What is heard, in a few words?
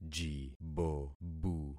"jibobu", concat syllables; Affixing experiment